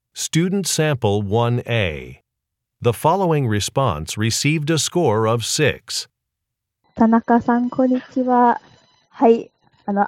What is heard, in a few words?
japanese conversation